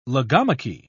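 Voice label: "logomachy", British English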